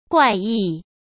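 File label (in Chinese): "怪异", Mandarin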